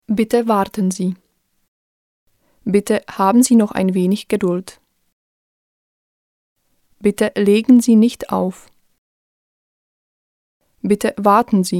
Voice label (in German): deutsch tschechisch, Telefonansagen, weiblich; deutsch-tchechisch; Sprecherin